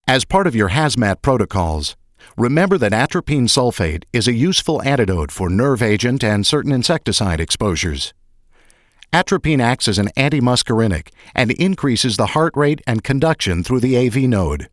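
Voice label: MEDICAL -- Scientific, Instruction, Training; hazmat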